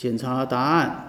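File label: check answer, Chinese; gcompris-data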